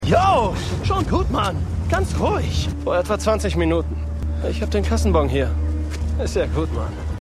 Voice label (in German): Hipster; Law & Order